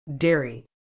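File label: "dairy", British English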